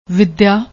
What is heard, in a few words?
"vidia", Punjabi